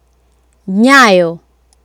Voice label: "nyayo", Swahili

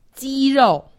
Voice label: "jirou", Chinese; Survival Chinese

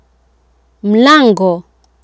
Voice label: "mlango", Swahili

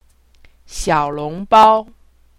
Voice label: "xiaolongbao", Chinese; Survival Chinese

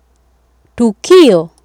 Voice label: "tukio", Swahili

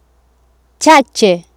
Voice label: "chache", swahili

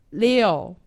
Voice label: "liu", Chinese; Survival Chinese

